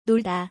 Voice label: "nolda", Korean, play

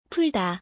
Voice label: "pulda", Korean word

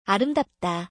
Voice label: korean beautiful

